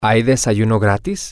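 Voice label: is there a continental breakfast, spanish, male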